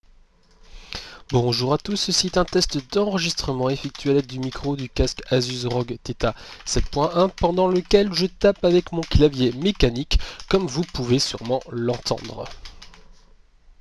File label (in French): test micro, Asus ROG Theta 7.1 AI off clavier